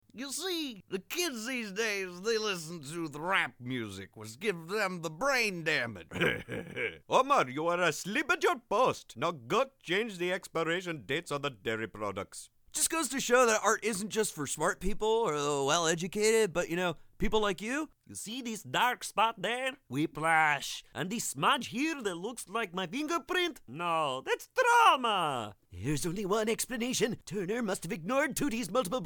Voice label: Character Voice